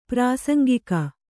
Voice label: "ಪ್ರಾಸಂಗಿಕ", Kannada